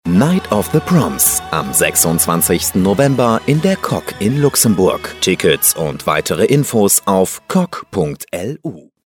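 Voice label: German, male, commercial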